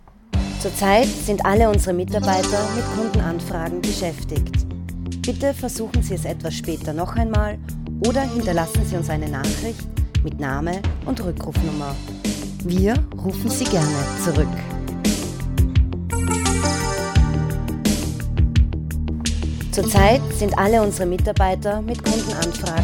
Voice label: Anrufbeantworter

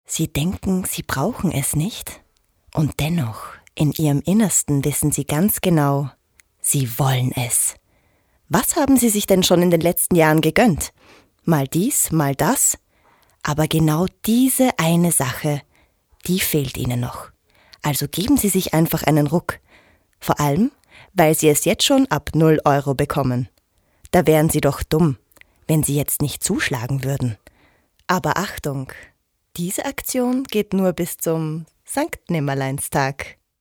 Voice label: Werbung

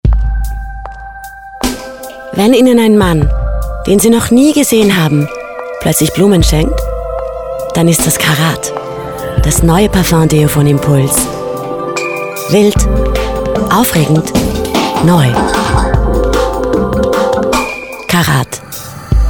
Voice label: Spot; Ticmusic

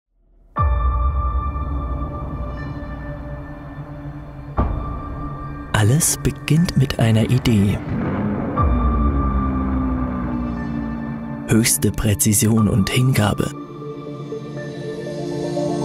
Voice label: Imagefilm; Stangl und Kulzer Group

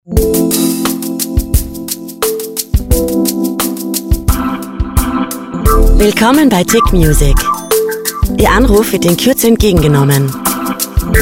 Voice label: Teleschleife; Ticmusic

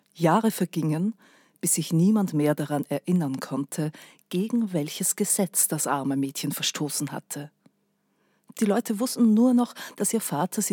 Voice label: Hörbuch

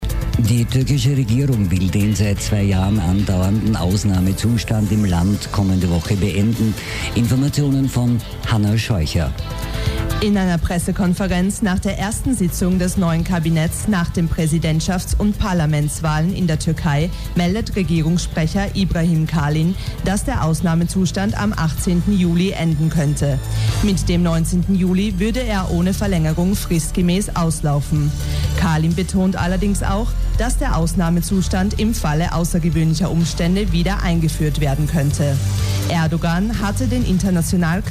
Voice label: nachrichten

